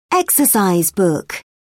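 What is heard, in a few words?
"exercise book", British English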